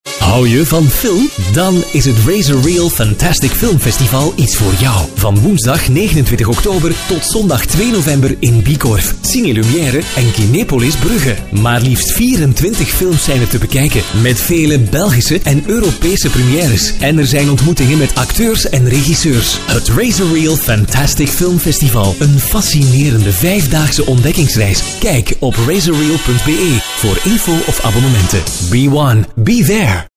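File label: flemish male commercial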